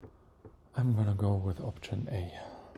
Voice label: neutral